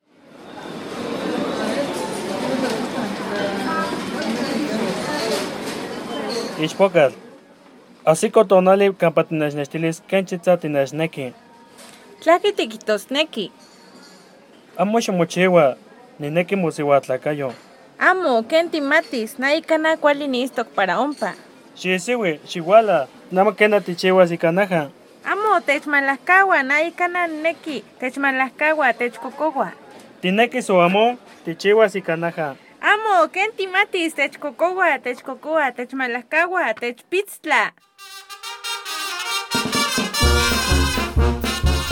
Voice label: Lengua Nahuatl Huasteca; FI Huayacocotla; Violencia contra las mujeres